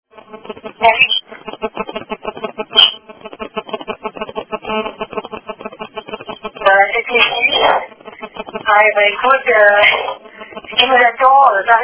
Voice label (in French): Appel telephonique